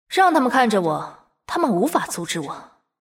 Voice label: move, zh; Akali